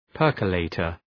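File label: "percolator", English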